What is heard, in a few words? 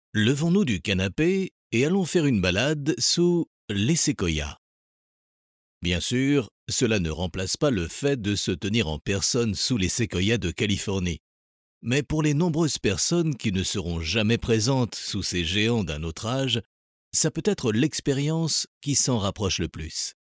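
French, male, narration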